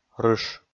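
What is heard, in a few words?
"рыш", Komi, male; kpv audio dict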